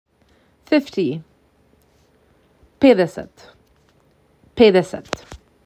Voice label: MAC 6, macedonian phrasebook